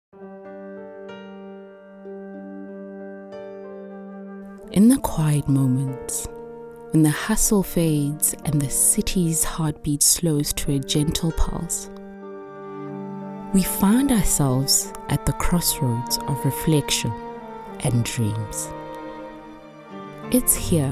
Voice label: Introspective